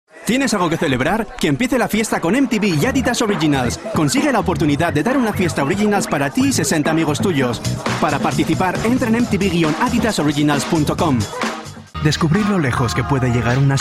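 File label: Spanish Europe General; GoLocalise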